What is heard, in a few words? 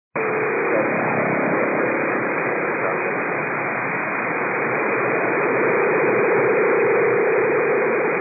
122 925MHz, scanner radio